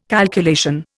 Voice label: "calculation", Indian